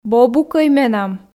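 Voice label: "бобукӧй менам", Komi, female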